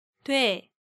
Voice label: "Doe", Korean